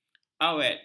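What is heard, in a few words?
"awet", Malay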